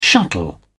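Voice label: "shuttle", British English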